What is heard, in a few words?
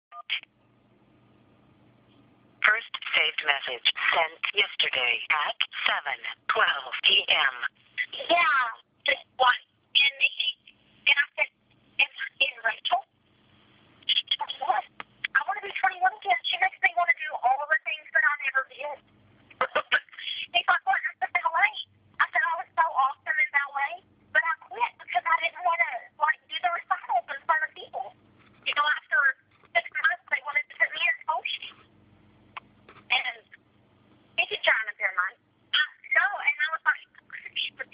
voice mail